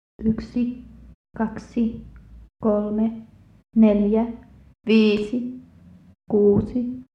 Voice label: Girl From Finland Counts 1 To 6